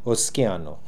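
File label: "Oskiano", pronunciations; Fourth Way; Beelzebub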